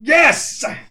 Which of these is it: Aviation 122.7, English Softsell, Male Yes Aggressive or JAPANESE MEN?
Male Yes Aggressive